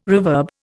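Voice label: "reverb", Indian English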